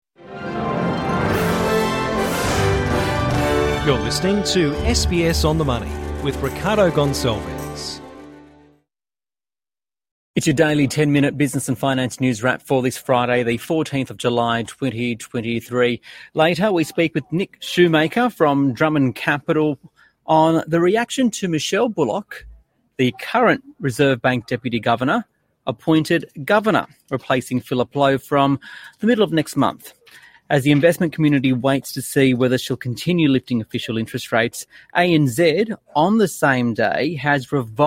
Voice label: english, podcast; SBS On the Money: New RBA Governor appointed as a big bank says we've reached peak interest rates; SBS News

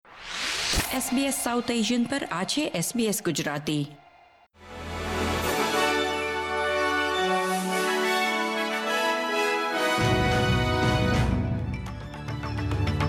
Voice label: gujarati news bulletin; SBS Gujarati Australian update: 8 April 2025

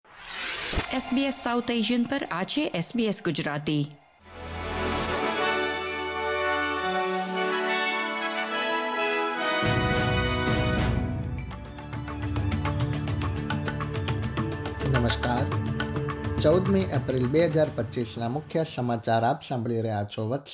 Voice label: gujarati news bulletin; ૧૪ એપ્રિલ ૨૦૨૫: ઓસ્ટ્રેલિયાની મુખ્ય અપડેટ; SBS Gujarati

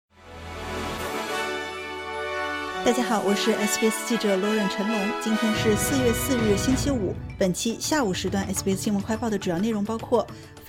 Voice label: mandarin news flash; 韩国法院罢免尹锡悦 60天内将选新总统; SBS Chinese; SBS新闻快报

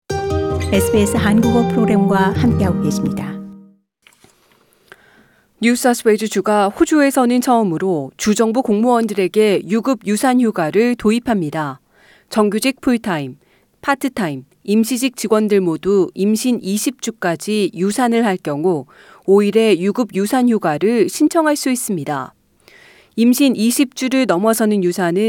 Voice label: korean; NSW 주, 국내 최초 공무원에 대한 ‘유급 유산 휴가’ 도입; SBS Korean

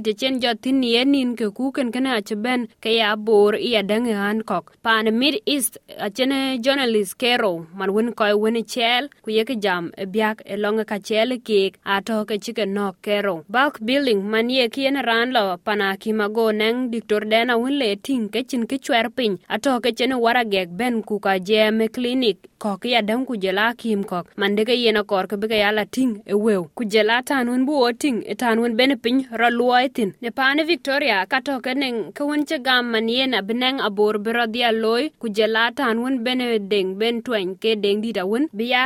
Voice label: dinka, podcast; News Flash 08/01/2024 Dinka; SBS Dinka

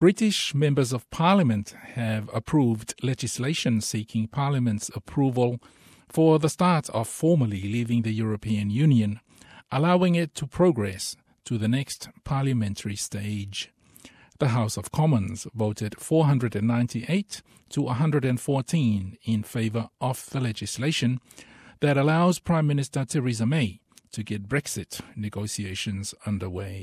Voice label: samoan; Mafai e Theresa May ona feutaga'i le Vaega 50 o le Brexit.; SBS Samoan

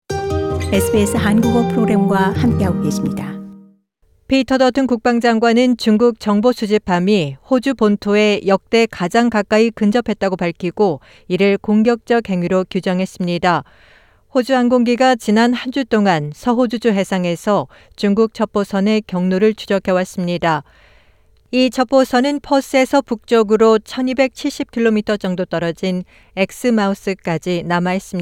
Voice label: korean; SBS Korean; 피터 더튼 '중국 첩보선, 서호주 해상에서 정보 수집 중'

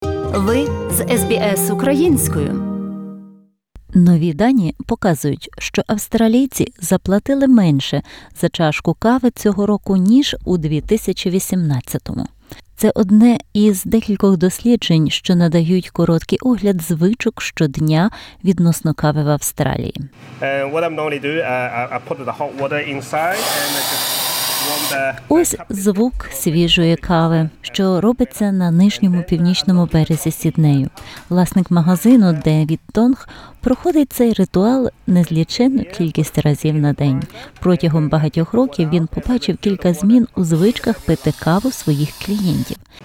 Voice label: ukrainian; SBS Ukrainian; Lower prices among takeaways from new coffee snapshot

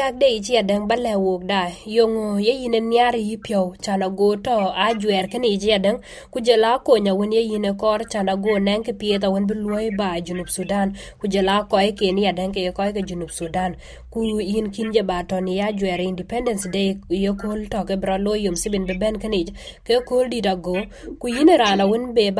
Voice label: Dinka; Nhomlääu South Sudan ruon 13 cok ciën; Yian nhomlääu pɛn South Sudan ruön ye ruun 13 tekde bei pɛn Sudan loi akutnhom kɔc pɛn New South Wales.; SBS Dinka

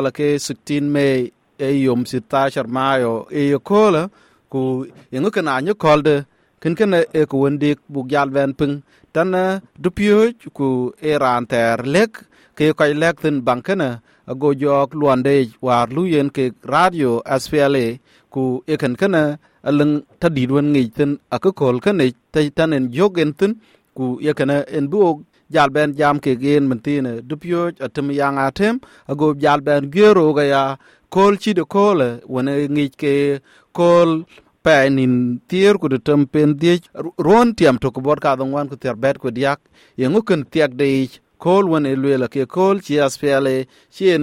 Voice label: dinka, podcast; SBS Dinka

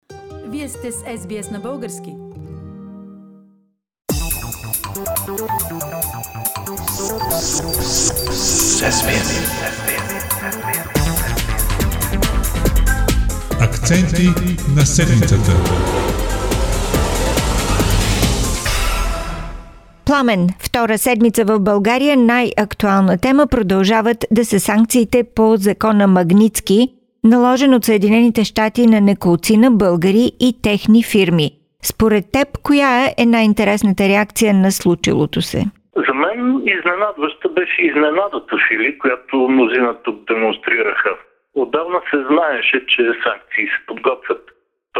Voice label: bulgarian; Защо санкциите по закона „Магнитски“ продължават да са най-актуалната тема в България?; SBS Bulgarian

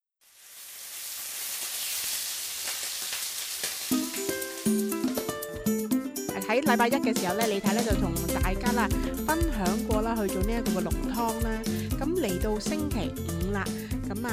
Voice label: cantonese; 【另類心意】白汁焗雜菌椰菜花; 美食速遞; SBS Chinese

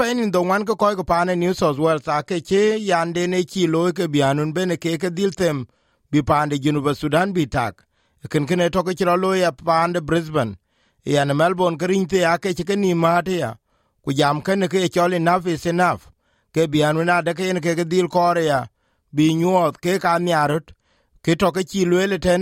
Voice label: dinka; NSW; South Sudan's independence; SBS Dinka

